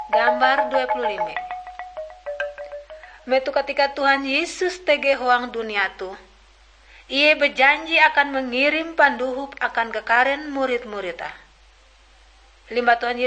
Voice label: kabar bahalap good news ngaju, drama